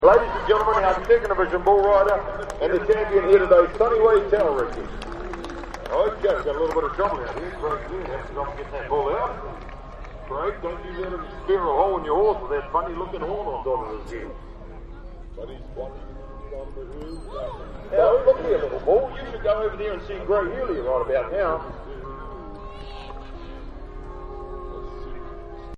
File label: Rodeo Announcer; Rodeo Announcer 1; Rodeo Sound Effects